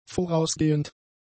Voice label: "vorausgehend", German; adjectives